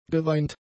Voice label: "geweint", German; adjectives

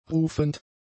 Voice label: "rufend", German; adjectives

